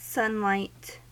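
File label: "sunlight", US English; pronunciations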